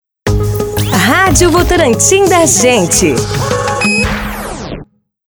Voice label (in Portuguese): Vinheta Falada